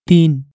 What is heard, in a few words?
"tin" Nepali